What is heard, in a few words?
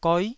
"goi9", taiwanese